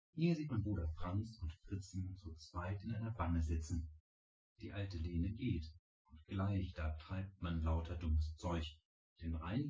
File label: male synthconv; SiSEC08; SiSEC underdetermined